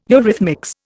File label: "eurythmics", Indian